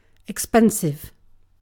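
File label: "expensive", British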